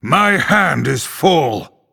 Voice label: Male Night Elf hand full

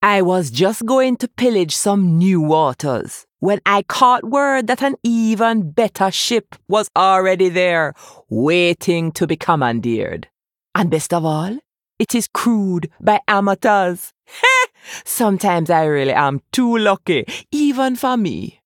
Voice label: Female Troll; Hooktusk

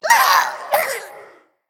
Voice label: Female Faerie Dragon Death

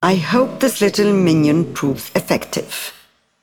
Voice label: Female Broker Recruit Small Minion

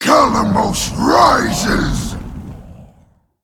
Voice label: Male Elemental Play

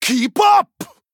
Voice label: Male Troll Attack

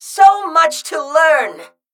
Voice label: Male Mechagnome Attack

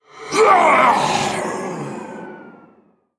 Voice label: Male Air Elemental Death

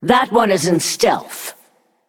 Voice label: Female Naga ERROR STEALTH

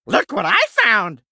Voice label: Male Gnome Play

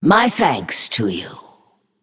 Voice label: Female Naga Thanks

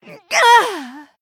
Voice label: Female Draenei Death

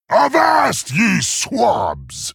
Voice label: Male Tauren Attack

